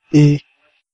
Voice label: "yes", hausa